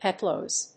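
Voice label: "Peplos", English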